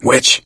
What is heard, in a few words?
warn witch, gambler, survivor voice; source engine; left 4 dead 2